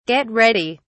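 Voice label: "get ready", British English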